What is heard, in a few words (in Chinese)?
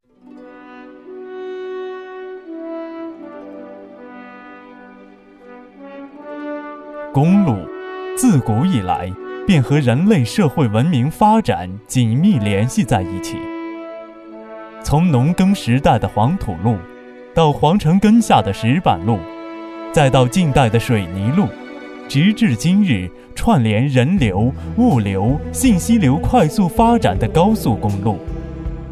男 专题 公路, 国语男声配音